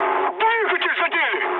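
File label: Russian damaged engine mood med, speech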